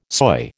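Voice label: "soy", American English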